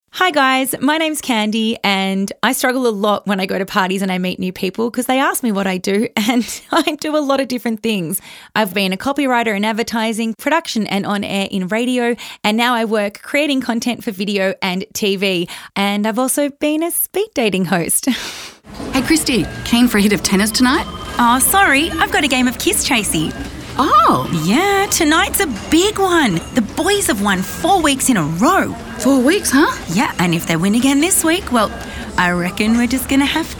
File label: Voiceover